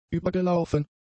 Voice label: "übergelaufen", German; adjectives